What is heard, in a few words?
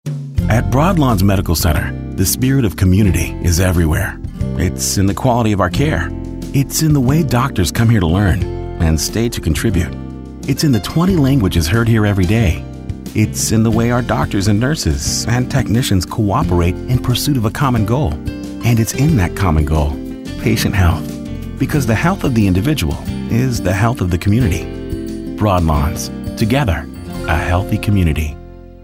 Soft Spoken